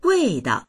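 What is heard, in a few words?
"wei4dao", Chinese; wèidao; 味道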